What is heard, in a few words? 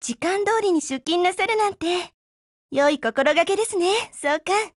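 Japanese, Voice; GF Alarm; RexZero1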